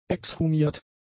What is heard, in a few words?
"exhumiert", German; adjectives